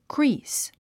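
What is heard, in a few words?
"crease", English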